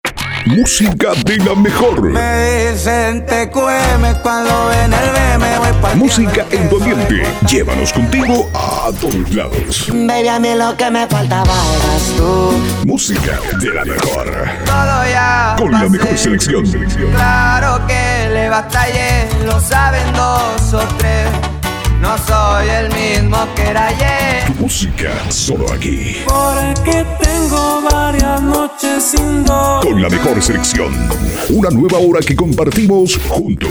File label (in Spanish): Neutro Mexico, Arte Estilo musical, Voz masculina; Para tu radio en Mexico